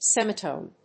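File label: "semitone", English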